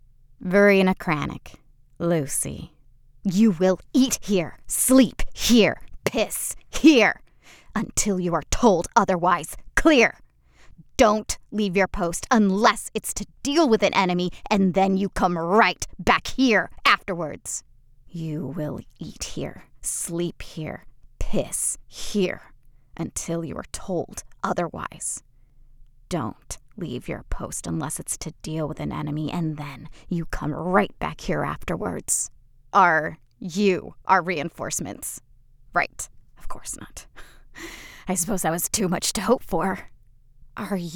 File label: Female